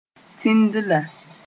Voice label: "tĩndla", Moore; small hill